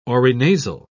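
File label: "orinasal", British English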